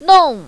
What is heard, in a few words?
"nong4", mandarin, female